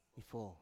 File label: "ifo", Biak